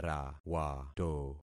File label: "rawato", concat syllables; Affixing experiment